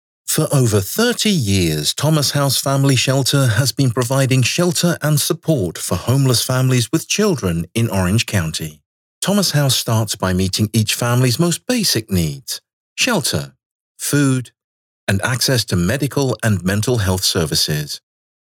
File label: vo non profit